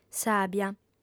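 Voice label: "sàbia", female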